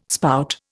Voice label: "spout", Indian